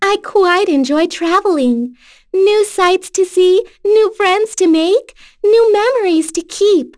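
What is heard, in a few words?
vox dia, voices heroes English; kingsraid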